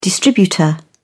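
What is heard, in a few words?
"distributor", British English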